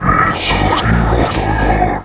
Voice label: daemon talk; Undercroft